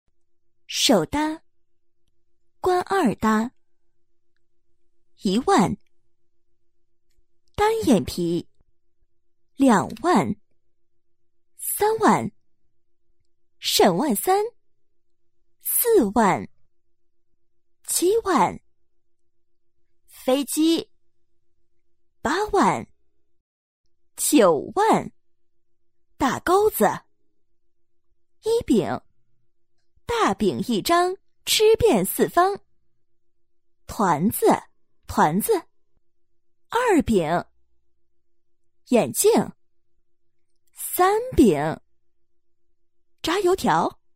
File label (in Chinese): 女 棋牌类 麻将 普通话